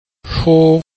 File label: "shu1", Chinese